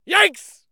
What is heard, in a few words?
male yikes; adventuretest; mobs